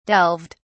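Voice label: "delved", British English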